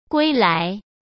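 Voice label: "归来", Mandarin Chinese; chinese-flashcards; hsk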